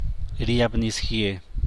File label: "rien", San Mateo Macuilxochitl Zapotec; rain; llover